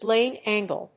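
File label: "Blade Angle", English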